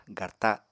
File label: "garta", Apatani; Material Culture